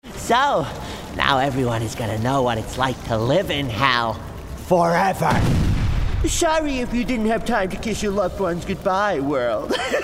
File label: Character; Voicereel